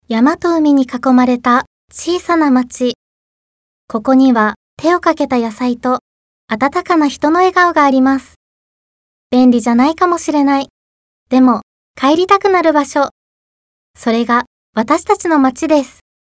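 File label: narration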